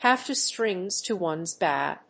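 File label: "have two strings to ones bow", English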